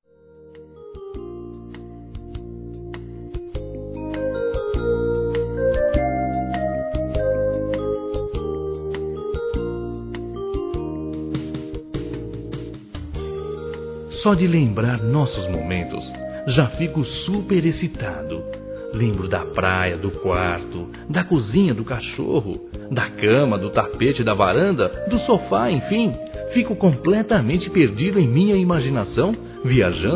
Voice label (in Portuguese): LEMBRANÇAS-M, Telemensagens Sensuais masc